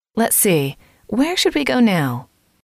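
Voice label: lets see where should we go now, female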